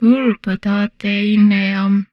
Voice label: humanoid female; Stellaris